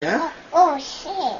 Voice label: message vanthurichu tamil baby voice notification

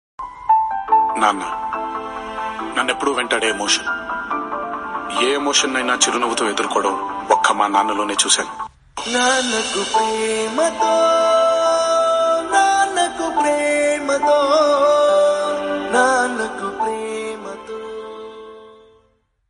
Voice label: dialogue Telugu; kgf

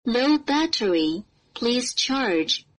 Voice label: low battery please charge sound effect

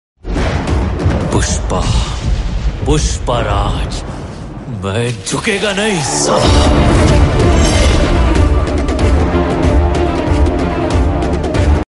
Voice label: dialogue hindi

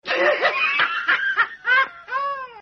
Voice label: dialogue voice; tom and jerry

